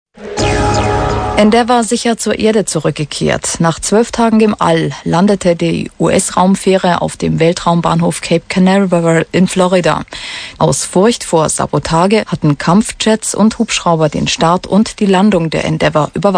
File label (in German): witziger nachrichten versprecher, radiopannen